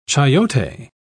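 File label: "chayote", British English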